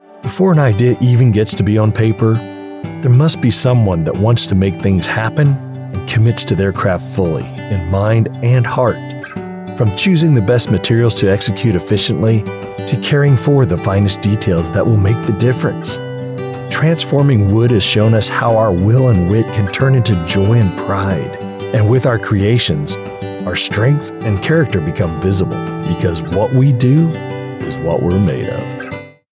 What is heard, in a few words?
Woodworking Documentary